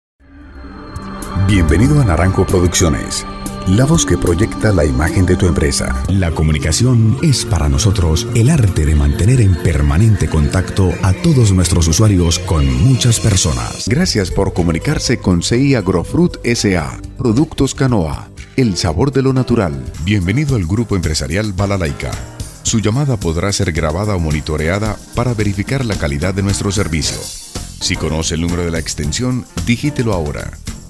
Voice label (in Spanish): Demo de Audio Telefónico IVR